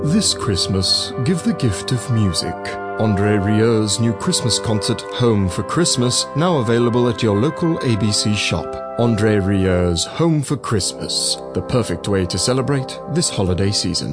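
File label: Calm Peaceful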